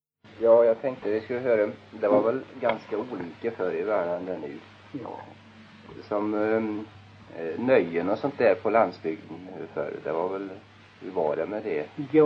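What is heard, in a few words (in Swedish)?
Kroppa Värmland, svenska sagor dialekter; Folkminnen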